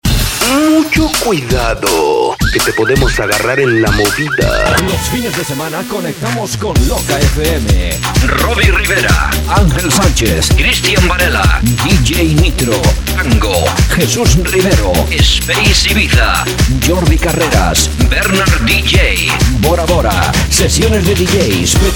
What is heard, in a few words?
jingle; formula exito; Loca FM